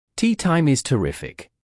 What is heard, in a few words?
Tea time is terrifc, british english pronunciation